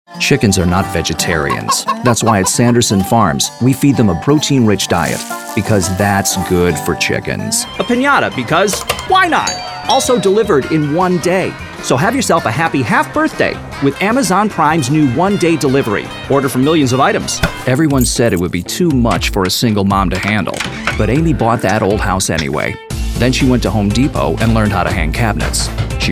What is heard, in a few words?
Commercial Male